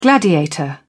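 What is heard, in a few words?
"gladiator", English, British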